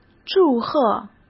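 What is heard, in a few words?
"祝贺", Mandarin Chinese; hsk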